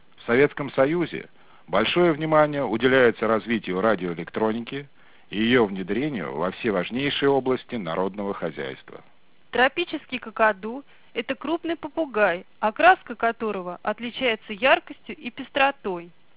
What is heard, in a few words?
speech g728g